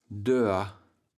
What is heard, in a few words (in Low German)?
"doer", kaevels platt